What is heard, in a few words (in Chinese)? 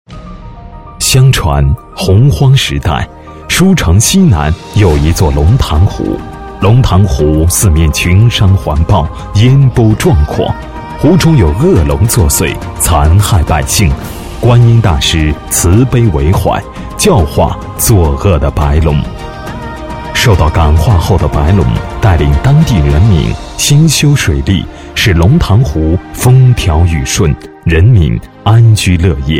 男 专题 宣传介绍, 品质大气; 国语男声配音; 万佛湖